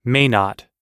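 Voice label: "may not", American English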